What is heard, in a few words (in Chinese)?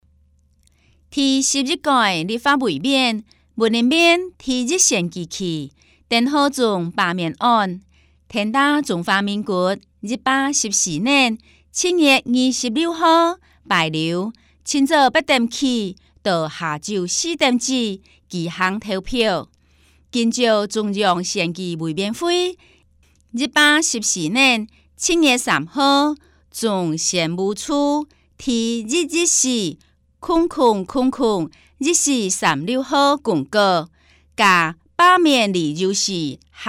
客語; 第11屆立法委員 (雲林縣第1選舉區) 丁學忠罷免案前言; 有聲公報